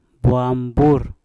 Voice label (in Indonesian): "bwam bur" rambut, Mpur